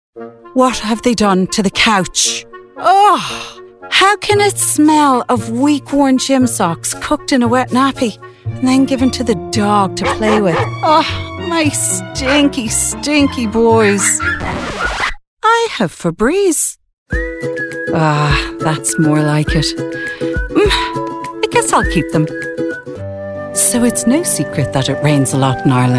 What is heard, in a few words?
Commercial